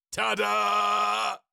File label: Cartoon lion voice "ta da"